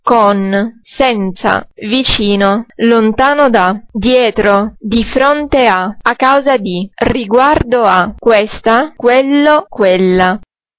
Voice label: italian words